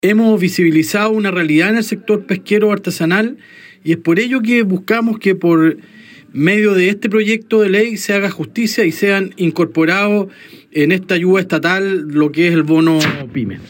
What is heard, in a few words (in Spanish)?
CUÑA